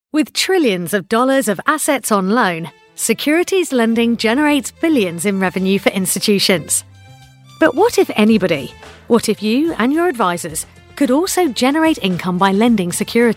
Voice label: e-learning

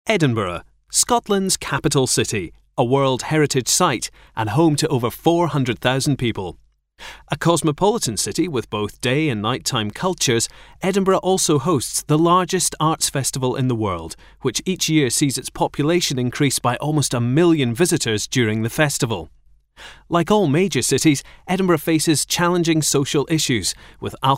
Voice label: corporate

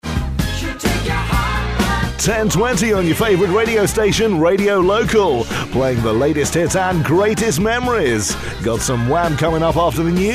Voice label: cheesy dj style

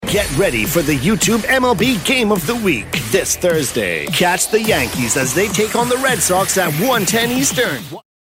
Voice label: voiceover commercial

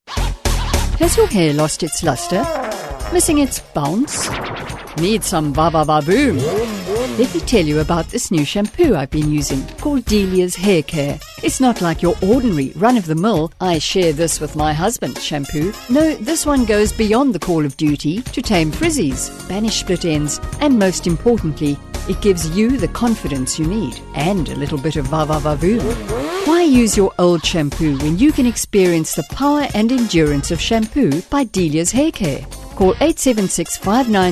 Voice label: commercial

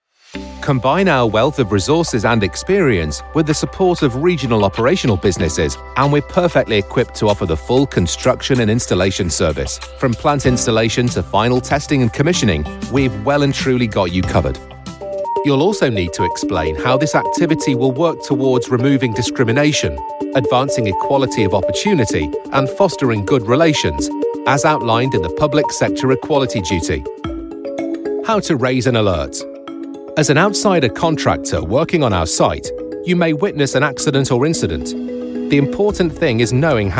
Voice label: e-learning

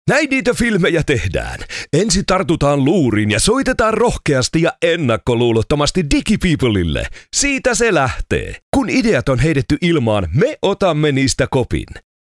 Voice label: upbeat

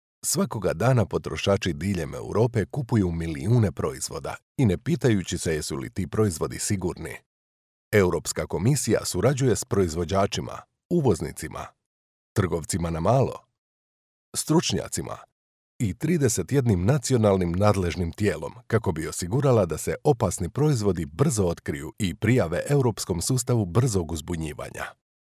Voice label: croatian explainer

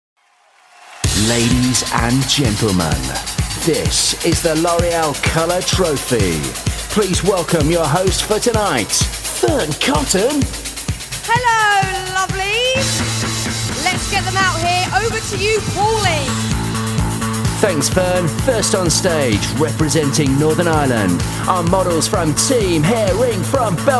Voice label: voice of god live awards show

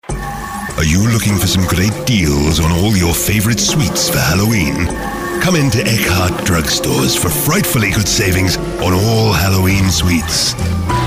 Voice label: halloween creepy dracula

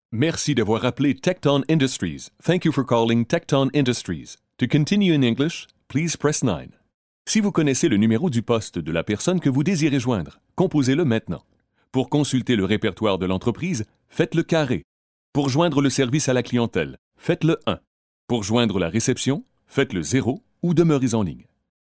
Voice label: french canadian, telephone

